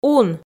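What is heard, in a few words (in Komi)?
"ун", Komi, female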